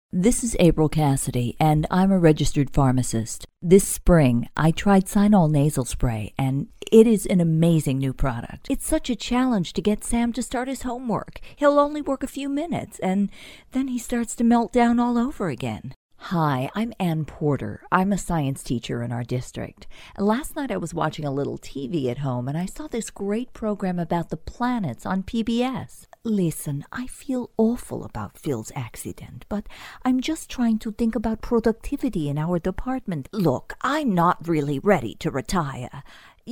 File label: e-learning realpeople character female; Character, Narration, eLearning